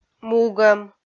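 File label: "мугӧм" Komi, female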